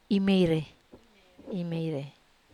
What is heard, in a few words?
"meire", Wamesa